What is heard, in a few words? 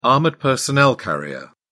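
"armoured personnel carrier", British English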